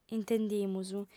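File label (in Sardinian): "intendimus", female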